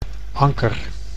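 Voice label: "anker", Dutch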